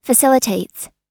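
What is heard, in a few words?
"facilitates", US English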